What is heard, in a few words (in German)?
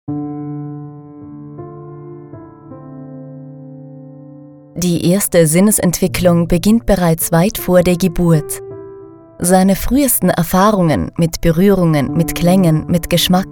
Doku DE